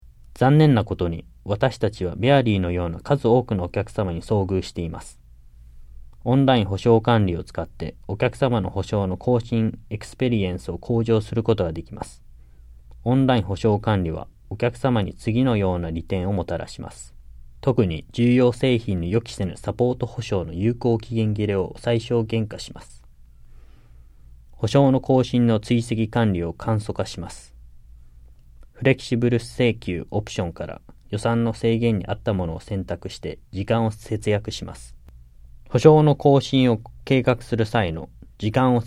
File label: JAPANESE; delltranslations